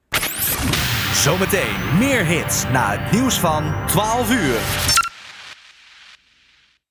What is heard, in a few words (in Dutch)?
Jingle Tijdsein zometeen meer hits naar het nieuws; van 12UUR